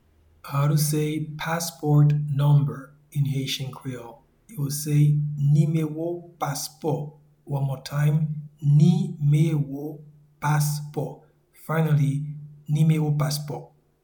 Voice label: Passport number in Haitian Creole Nimewo paspo, audio pronunciation; “Passport number” (English) translates as “Nimewo paspò” in Haitian Creole